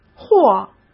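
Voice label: "或", Mandarin Chinese; hsk; chinese-flashcards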